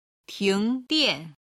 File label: "tingdian", Chinese